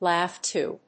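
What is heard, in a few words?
"laugh to", English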